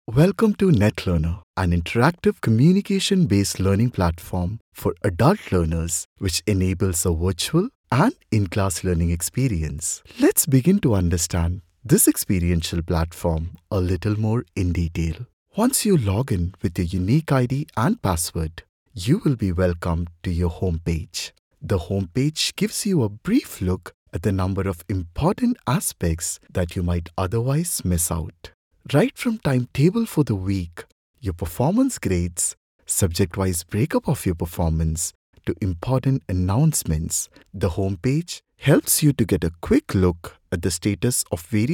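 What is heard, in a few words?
Hindi, Acc EN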